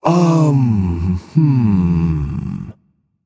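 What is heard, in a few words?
"seriously", male; baronsamedi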